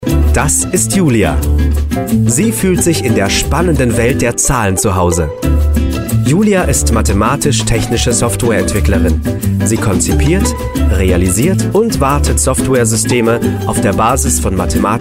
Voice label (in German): erklaerfilm